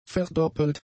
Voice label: "verdoppelt", German; adjectives

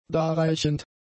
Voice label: "darreichend", German; adjectives

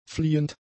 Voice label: "fliehend", German; adjectives

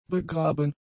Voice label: "begraben", German; adjectives

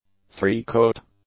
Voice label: "three-coat", English; adjectives

